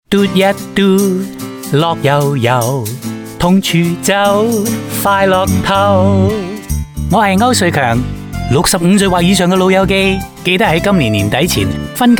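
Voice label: Cantonese; fare concession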